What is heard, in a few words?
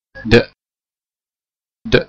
hebrew pronunciation d